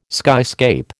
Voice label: "skyscape", American

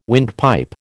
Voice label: "windpipe", American

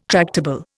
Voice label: "tractable", Indian

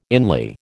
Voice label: "inly", American

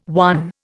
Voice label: "won", Indian

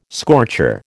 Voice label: "scorcher", American

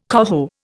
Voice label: "coho", Indian

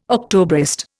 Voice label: "Octobrist", Indian

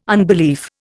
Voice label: "unbelief", Indian

